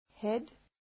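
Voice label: head, English, Australian, Perth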